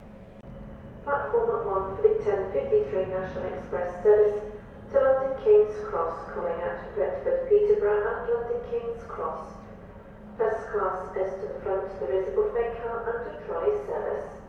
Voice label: Station Announcement; Doncaster